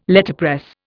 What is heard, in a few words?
"Letterpress", Indian